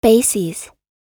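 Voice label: "bases", US English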